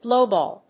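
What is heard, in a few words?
"blowball", English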